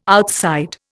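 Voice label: "outside", Indian English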